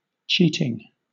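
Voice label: "cheating", British English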